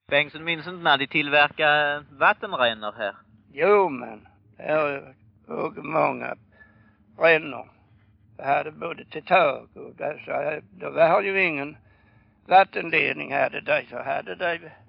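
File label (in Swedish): Örkelljunga Skåne, dialekter; Folkminnen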